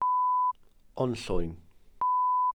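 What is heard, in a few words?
"onllwyn", Welsh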